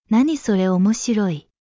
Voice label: anime, japanese